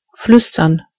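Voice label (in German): "whisper", German; gcompris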